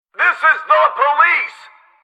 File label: Police Loudspeaker Lay Down Your Weapons Eerste Deel